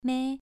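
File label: "mei", tone 2, pronunciation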